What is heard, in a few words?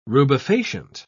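"rubefacient", British English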